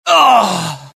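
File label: death, dialogue generic Italian; mohaa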